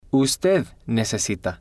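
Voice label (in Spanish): "ud necesita", Spanish, male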